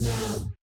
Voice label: "Feel The Vibe" robot; Yamaha DJX-2B